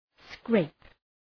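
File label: "scrape" English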